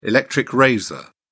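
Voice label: "electric razor", British English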